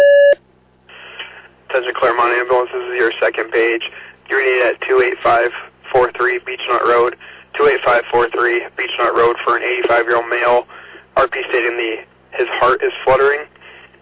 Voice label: Fire, dispatch; Clermont

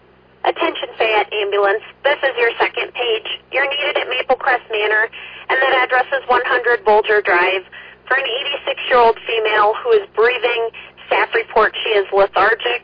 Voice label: Ambulance, dispatch

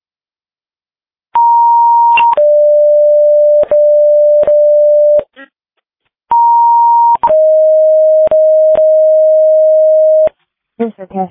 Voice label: Tripoli Fire, dispatch

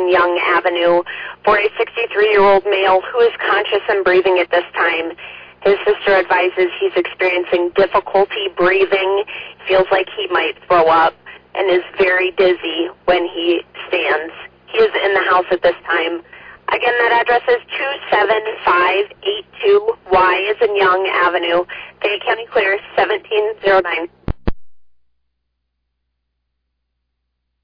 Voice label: Waucoma First Responders, dispatch

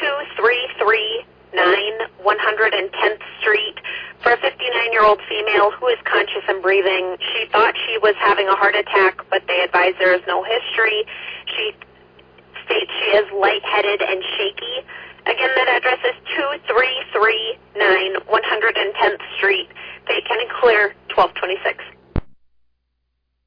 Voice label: Oelwein Ambulance, dispatch

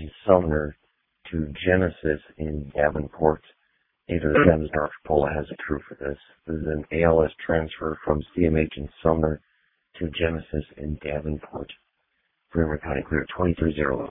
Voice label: Waverly Ambulance, dispatch

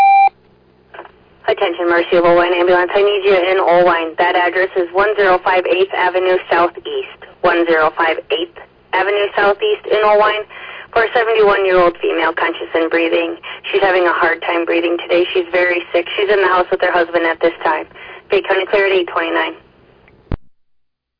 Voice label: Oelwein Ambulance, dispatch

